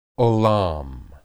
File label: "olam", Hebrew